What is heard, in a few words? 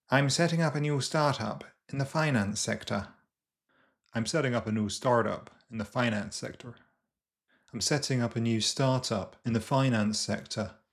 SSBE GA